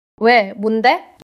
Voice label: neutral, monologue